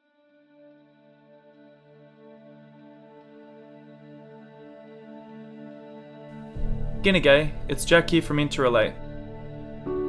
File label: Box Breathing Mindfulness